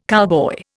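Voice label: "cowboy", Indian English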